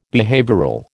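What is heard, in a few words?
"behavioural", American English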